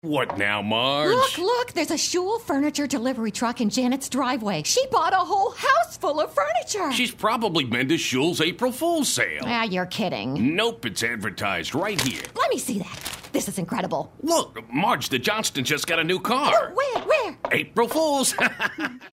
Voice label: humorous

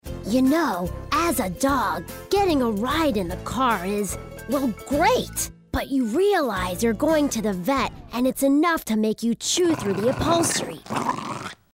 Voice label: humorous

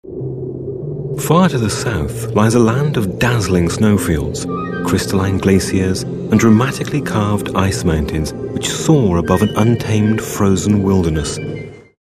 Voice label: smooth

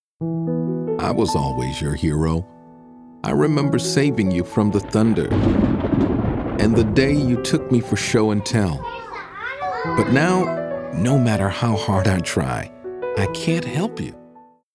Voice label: concerned

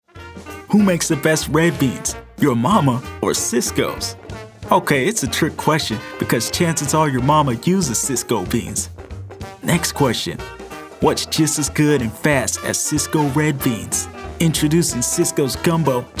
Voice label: CREOLE

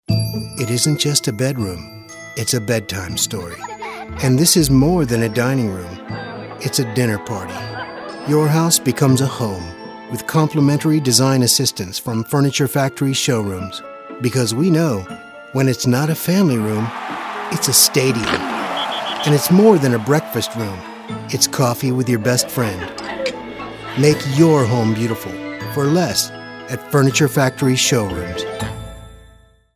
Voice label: soft spoken

